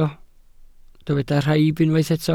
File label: "rhaib", welsh